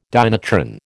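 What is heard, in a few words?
"dynatron", American English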